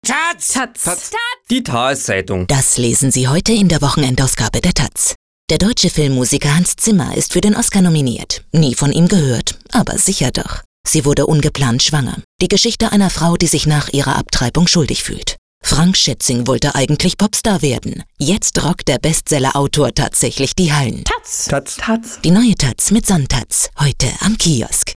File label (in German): werbung, deutsch frauen